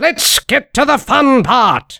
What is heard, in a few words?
battlecry